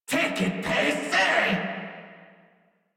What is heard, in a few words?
Cover Me Crewmen en; Warframe; Corpus Crewmen; Crewman D